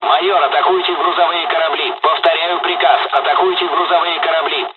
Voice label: dialogs russian; War Thunder

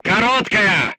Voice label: voice message short stop, crew tanks russian

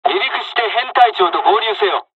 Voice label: dialogs japanese; midway us; takeoff; War Thunder

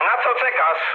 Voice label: attack position mood med, speech pol; War Thunder

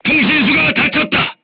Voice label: voice message machine gunner wounded, korean

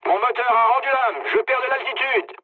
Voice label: damaged engine mood low, speech fr; War Thunder

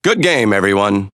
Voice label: Speech Quick Commands Good Game, EN; World Of Warships

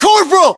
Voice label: rank corporal, US

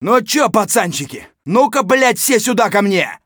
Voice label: mutter; Escape from Tarkov

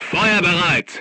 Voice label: voice message charged, crew tanks german

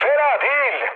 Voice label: trick, mood med, speech tur; War Thunder

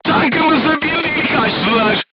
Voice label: voice message player tank hit, crew tanks turkish

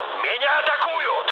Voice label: aircraft damaged, mood low, speech ru; War Thunder

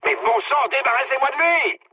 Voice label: aircraft damaged mood med, speech fr; War Thunder

